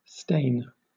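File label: "stain", British English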